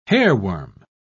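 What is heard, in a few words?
"hairworm", British English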